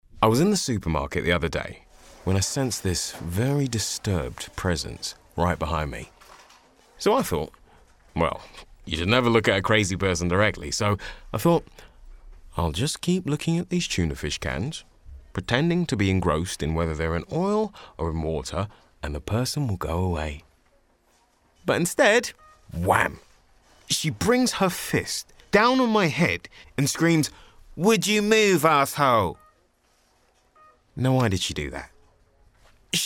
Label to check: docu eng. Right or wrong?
Wrong